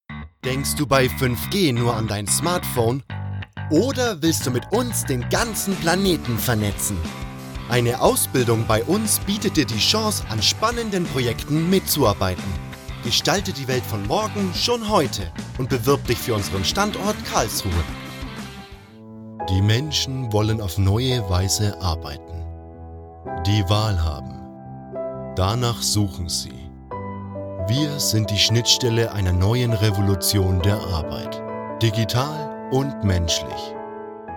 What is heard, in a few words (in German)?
Werbung Deutsch